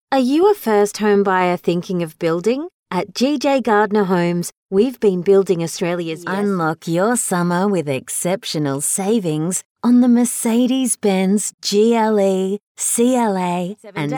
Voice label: Soft Sell